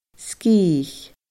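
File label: "sgìthich", Scottish Gaelic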